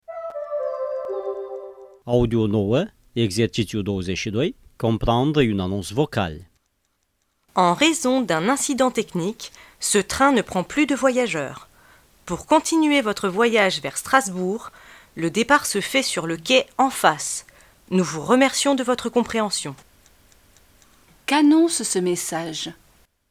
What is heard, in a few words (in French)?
annonce vocale; Comprendre une annonce vocale